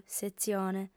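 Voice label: "setzione", lingua sarda, female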